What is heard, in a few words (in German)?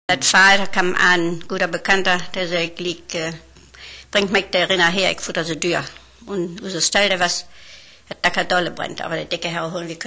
Ostfaelisch, Niederdeutsch